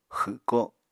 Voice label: "xwko", Apatani; [xɨɁkoɁ] v. unbolt